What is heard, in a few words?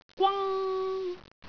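"gwang1", Cantonese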